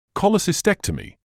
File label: "cholecystectomy", medical english uk